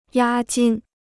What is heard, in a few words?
"押金", Chinese